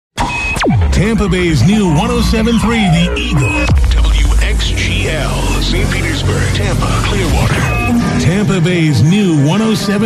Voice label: Station ID; WXGL

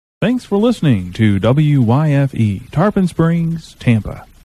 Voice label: Station ID; WYFE

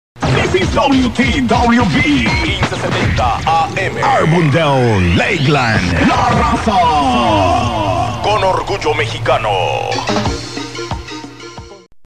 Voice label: Station ID (Spanish); WTWB

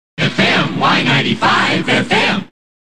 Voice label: shout; WYNF; Y95 FM

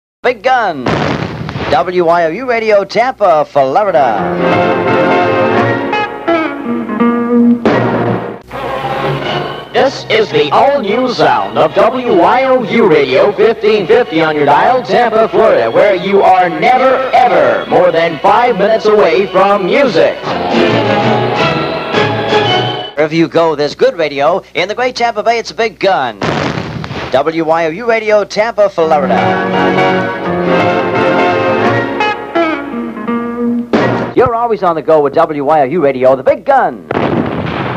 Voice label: promo compilation; WYOU; Big Gun

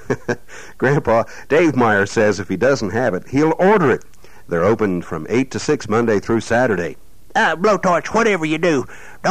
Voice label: commercial for Plant City Feed Store; WPLA

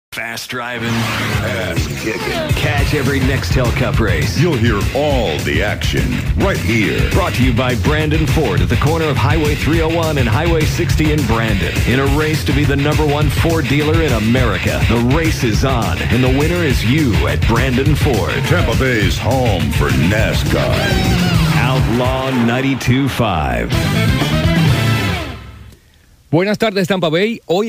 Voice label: Flip from country to Spanish; WYUU

